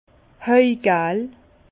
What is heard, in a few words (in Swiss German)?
"hajgal", Bas Rhin; jaune paille; vin